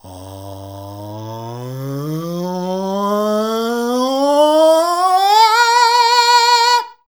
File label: "RAISE", SHOUTS; Best Service; Hyper Dance